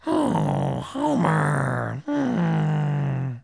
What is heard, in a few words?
aww, phrases; Wired for Sound Pro CD; Aristosoft, Inc.